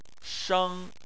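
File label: "sheng" tone 1, Chinese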